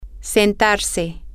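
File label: female, spanish; to Seat Himself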